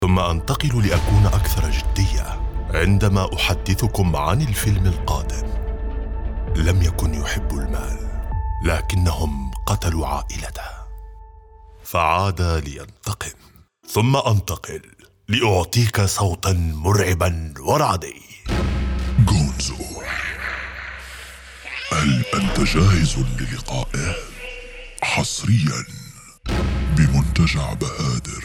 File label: arabic movie trailers